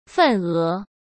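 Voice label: "份额", Mandarin Chinese; hsk; chinese-flashcards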